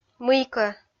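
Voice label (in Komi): "мыйкӧ", Komi, female; kpv audio dict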